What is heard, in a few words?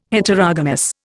"Heterogamous", Indian